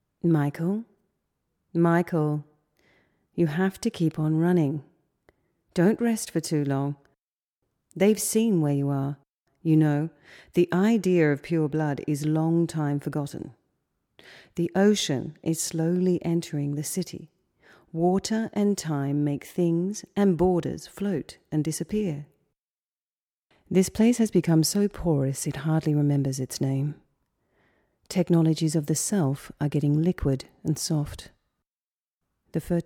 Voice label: Voiceover